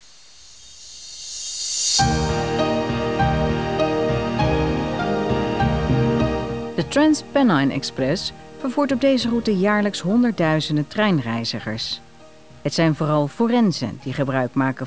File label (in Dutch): Docu treinreis